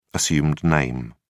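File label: "assumed name", British English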